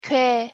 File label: "궤", Korean